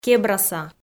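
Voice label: "кебраса", Komi, female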